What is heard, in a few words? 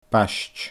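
"baist", Scottish Gaelic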